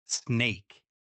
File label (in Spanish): serpiente inglés; palabras ingles infantil